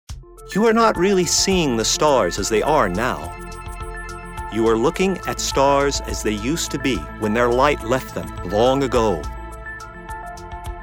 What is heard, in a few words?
Narration